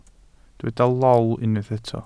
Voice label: "lol", Welsh